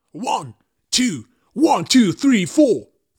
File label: One Two Three Four Fast